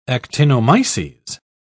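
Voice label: "actinomyces", British English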